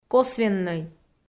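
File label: "косвеннӧй", Komi, female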